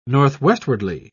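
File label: "northwestwardly", British English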